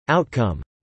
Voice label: "outcome", English

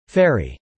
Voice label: "ferry", English

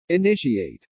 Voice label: "initiate", English

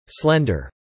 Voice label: "slender", English

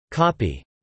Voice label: "copy", English

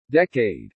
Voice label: "decade", English

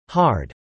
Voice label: "hard", English

